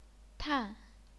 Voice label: "tan4", chinese pinyin